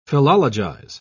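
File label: "philologize", British English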